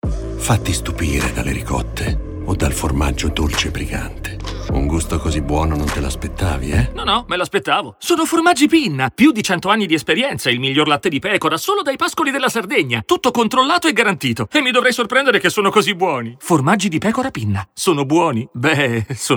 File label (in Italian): Spot, spot radio; Pinna; pinna formaggi